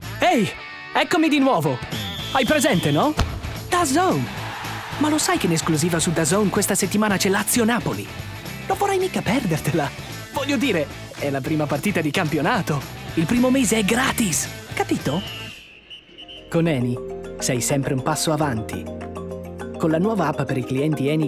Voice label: italian voice